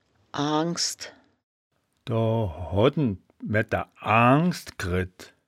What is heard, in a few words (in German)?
"aangsd", woerterbuch, Wittlich